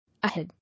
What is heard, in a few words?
"Ahead", English